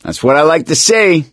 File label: nice job, gambler, survivor voice; source engine; left 4 dead 2